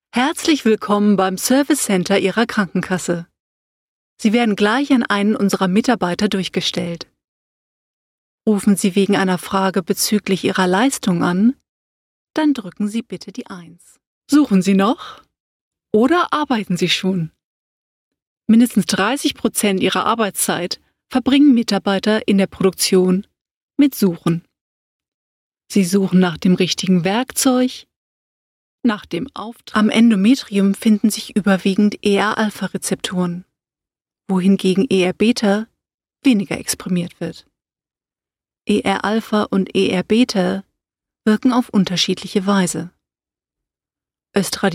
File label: German